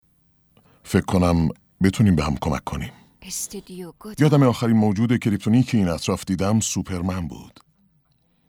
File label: dub